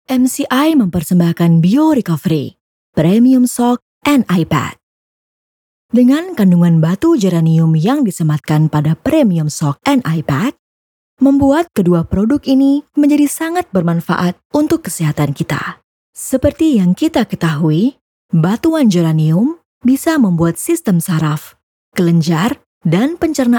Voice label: INDONESIA FORMAL